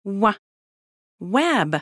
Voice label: babys sound audio WEB